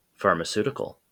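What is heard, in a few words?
"pharmaceutical" English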